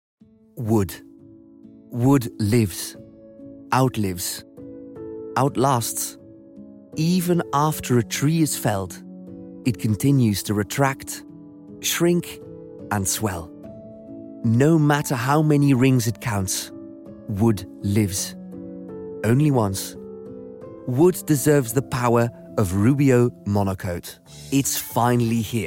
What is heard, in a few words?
uk eng commercial; commercial reel